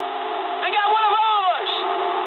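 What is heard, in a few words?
English aircraft destroyed mood med; War Thunder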